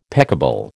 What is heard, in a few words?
"peccable", American